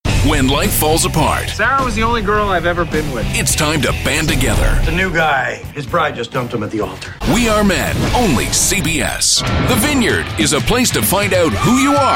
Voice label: Promo

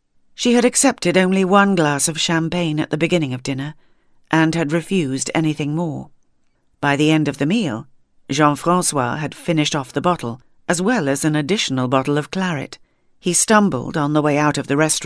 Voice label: audiobook sample; Moonlight Over Paris

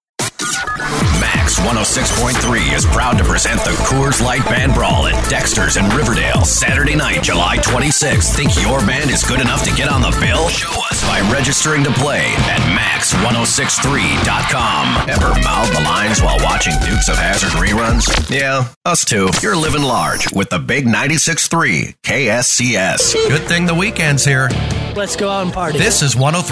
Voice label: Imaging

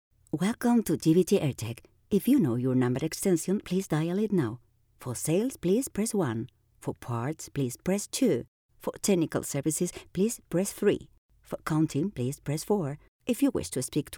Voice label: FEMALE English contestador

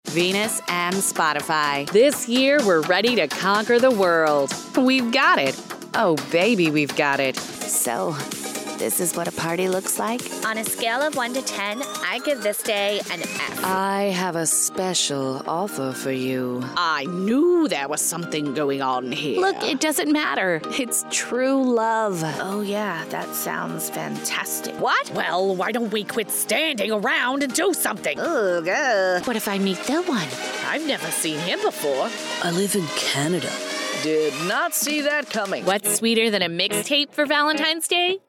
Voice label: Narration and Character

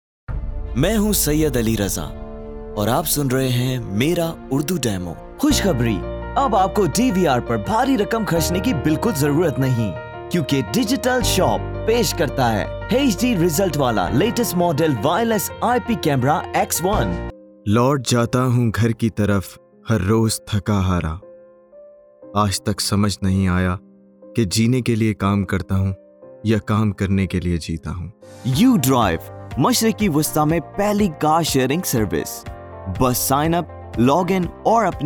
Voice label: Urdu

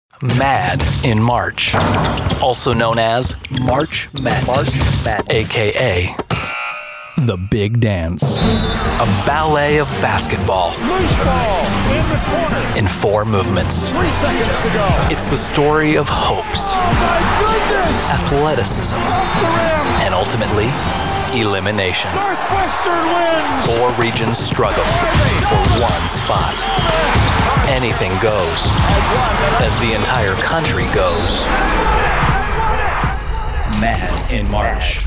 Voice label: Television

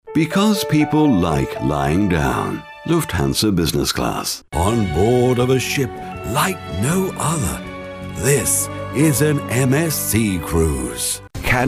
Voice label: TV COMMERCIALS

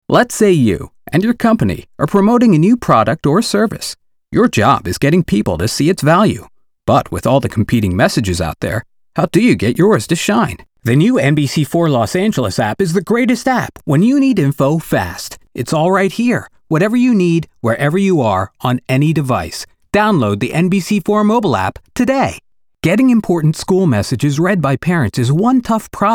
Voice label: Conversational Reads

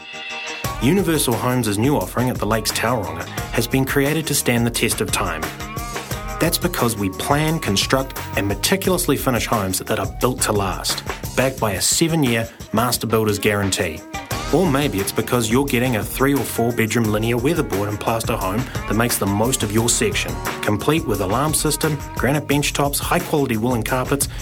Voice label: Narrative No Intro

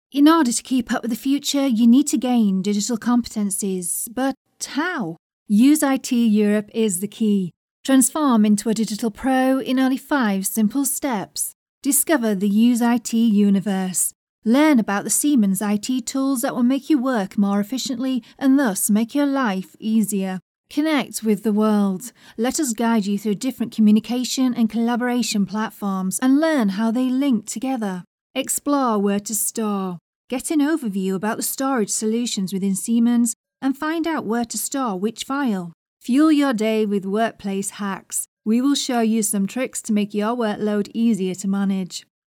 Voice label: Explainer

